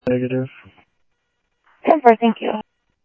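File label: Sheriff West County, scanner audio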